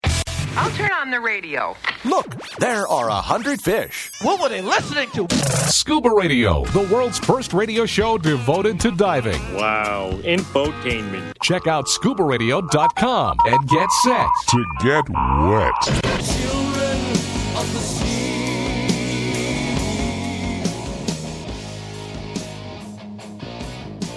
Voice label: Promo